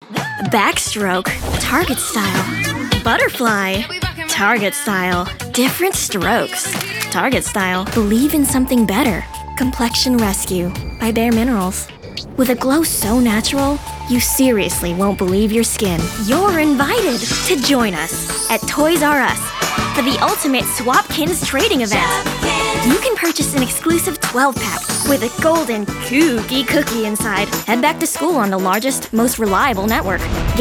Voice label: Commercial VO